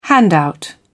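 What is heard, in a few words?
"handout", British English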